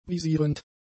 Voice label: "visierend", German; adjectives

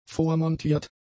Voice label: "vormontiert", German; adjectives

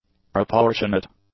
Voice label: "proportionate", english; adjectives

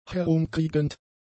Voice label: "herumkriegend", German; adjectives

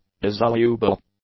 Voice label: "dissoluble", English; adjectives

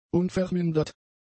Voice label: "unvermindert", German; adjectives

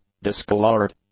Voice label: "discoloured", English; adjectives

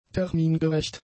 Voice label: "termingerecht", German; adjectives

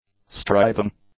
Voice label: "striving", English; adjectives

